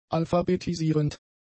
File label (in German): "alphabetisierend", German; adjectives